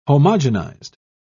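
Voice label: "homogenised", British English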